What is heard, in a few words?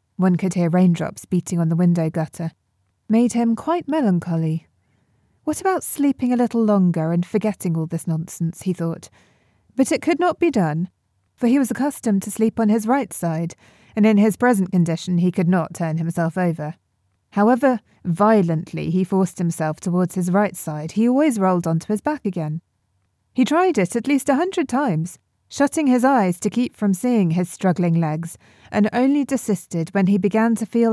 narration; the metamorphosis